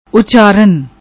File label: "Ucharan", Punjabi